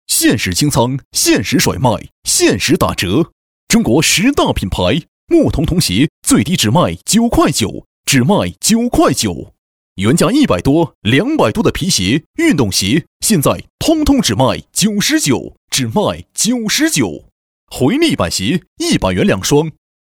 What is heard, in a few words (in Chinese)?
男 促销; 童鞋; 牧童童鞋